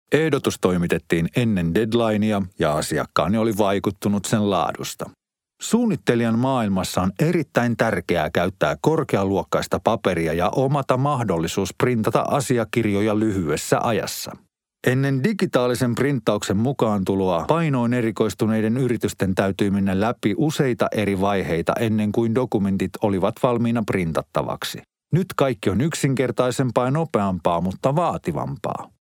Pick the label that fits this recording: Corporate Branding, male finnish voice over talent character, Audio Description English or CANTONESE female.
male finnish voice over talent character